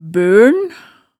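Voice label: "bøln", Hemsedal dialect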